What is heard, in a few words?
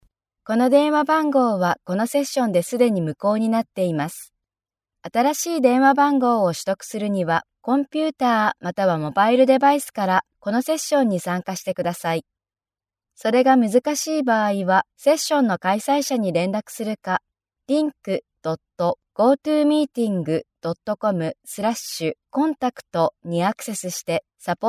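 Japanese, female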